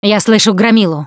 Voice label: heard charger, Left 4 Dead 2 russian, Survivor Voice Producer